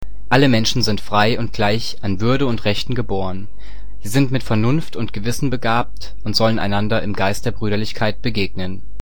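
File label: German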